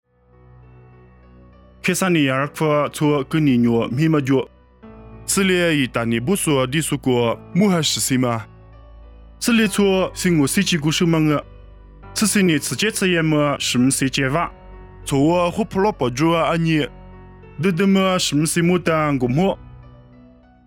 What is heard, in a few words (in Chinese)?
凉山彝语; 以色列凯撒利亚; nuosu